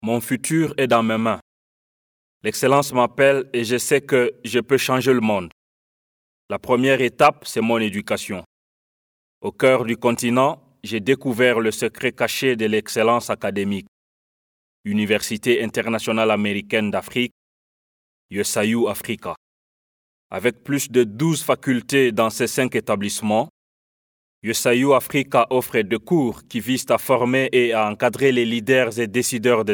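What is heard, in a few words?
African French male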